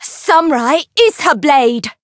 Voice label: chant; azami

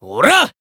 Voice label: attack, jp; shigure

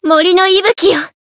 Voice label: heal, jp; veina

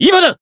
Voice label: limit break jp

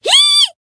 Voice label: Voice damaged jp

